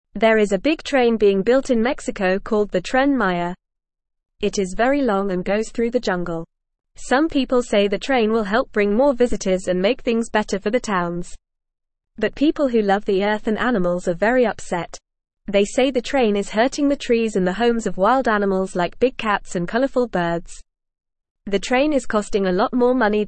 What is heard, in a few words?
Beginner FAST Reading, English; English Newsroom; Big Train in Mexico Good for Some Bad for Nature